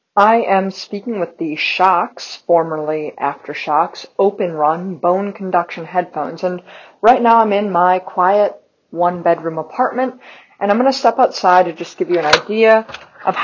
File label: Shockz OpenRun microphone ideal wind